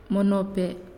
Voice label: "Mountain", Naasioi

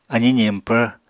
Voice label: "aziñ ziñpvr", apatani

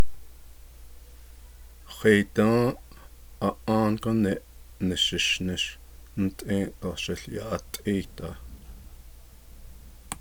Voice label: navajo

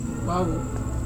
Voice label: "wavu" stove ashes, Serewen

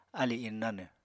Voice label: "alì innánw", Apatani

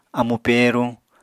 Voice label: "amu pèeruñ", Apatani

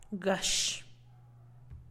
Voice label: close proximity, Santiago Matatlán Zapotec

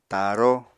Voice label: "taaró", Apatani

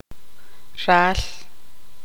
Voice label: tzutujil; hijo de mujer

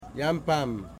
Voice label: "sago basket", Wamut

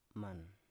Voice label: "man", Biak

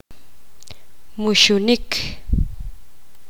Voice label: poqomam; nadar

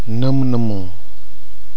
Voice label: "nɨmnɨmu", Kwamera; coconut parasite

